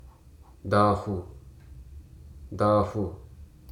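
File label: "know", English, Hruso dictionary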